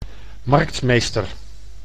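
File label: "marktmeester", Dutch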